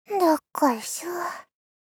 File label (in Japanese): どっこいしょ・・・ 少女（ギャル）×中音域